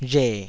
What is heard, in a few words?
"rhe3", taiwanese